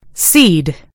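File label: "seed", British English; gcompris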